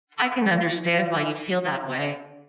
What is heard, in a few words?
Robot - I Understand